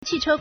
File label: "qi4 che1", Chinese